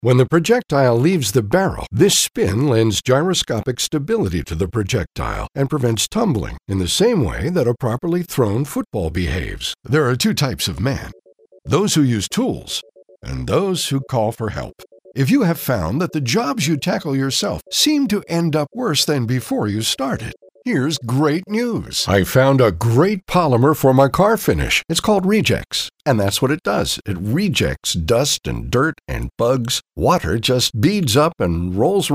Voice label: narration